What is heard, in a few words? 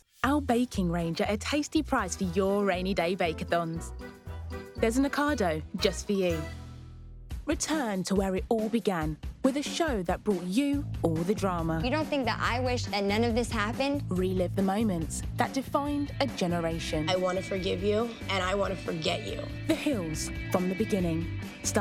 Commercial Voice